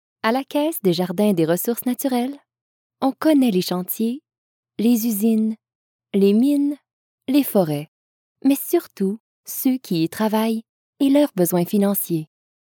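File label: French Canada, female, voice over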